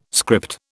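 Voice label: "script", British English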